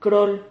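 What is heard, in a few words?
"crol", Spanish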